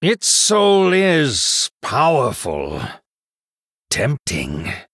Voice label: Male Human Recruit Large Minion